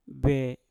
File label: "be", Mpur; buah